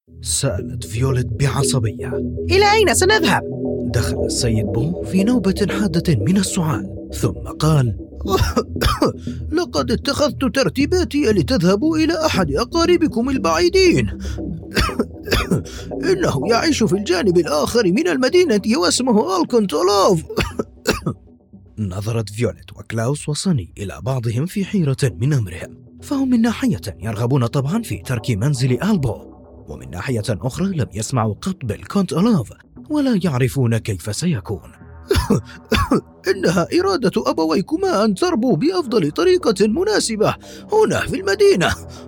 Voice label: Narrator and characters, male voice over